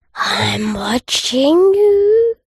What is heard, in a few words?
human child boy 8 english says in sneaky sinister voice im watching you